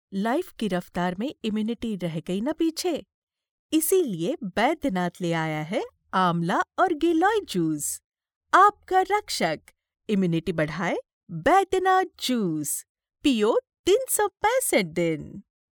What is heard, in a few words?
female hindi voice over narrative